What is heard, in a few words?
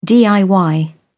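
"diy", British English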